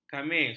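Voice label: "khamis", Malay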